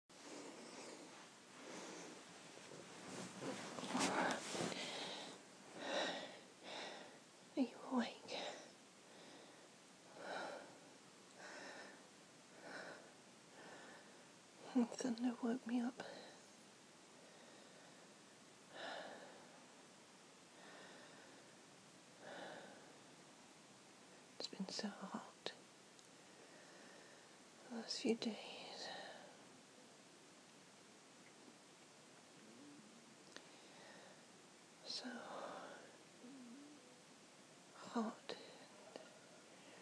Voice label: [F4A][girlfriend experience] cuddles and kisses in the thunderstorm